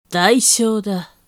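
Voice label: female; 代償だ; 低音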